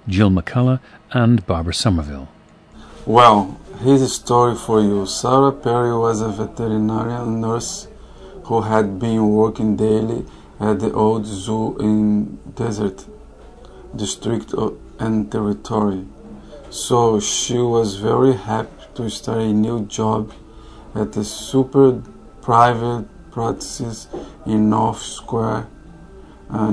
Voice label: brazil, dialects